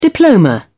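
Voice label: "diploma", British English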